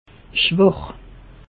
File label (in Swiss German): "schwach", alsace; meteo